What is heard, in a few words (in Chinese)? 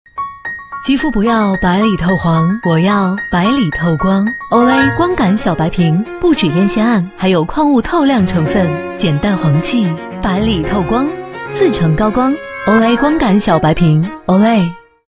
女 品牌广告 两个风格; Olay